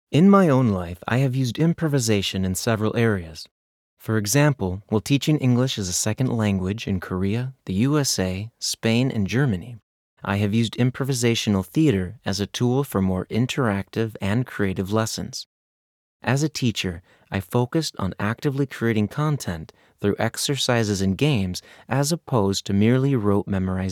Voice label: Audio Book